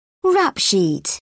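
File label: "rap sheet", British English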